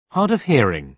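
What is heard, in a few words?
"hard of hearing", British English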